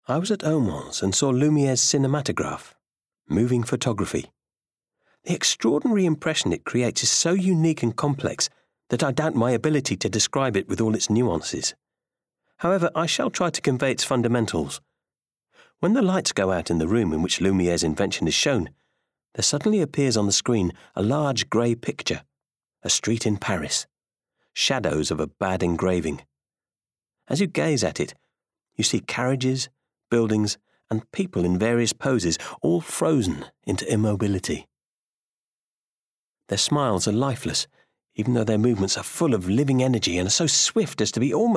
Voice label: Bookread